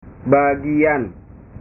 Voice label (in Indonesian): "bagian", bahasa